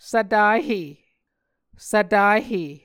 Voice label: "svtahe", Muscogee